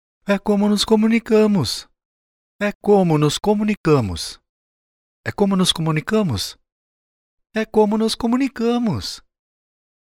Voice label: portuguese brazilian announcements; Voice over talent, with a warm, clear and friendly voice